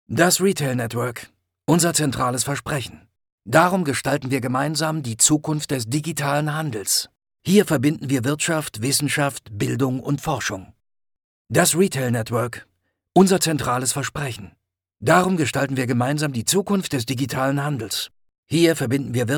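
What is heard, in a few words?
German commercial friendly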